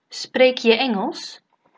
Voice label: do you speak english, dutch